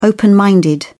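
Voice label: "open minded", British English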